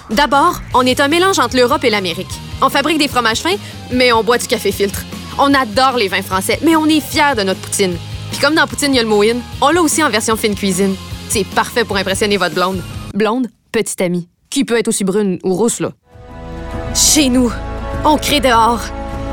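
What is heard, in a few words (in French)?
PUB Québec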